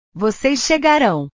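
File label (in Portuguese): "vocês chegarão", Portuguese; portugues/verbos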